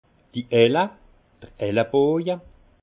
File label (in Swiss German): "elle", alsace; vin; aune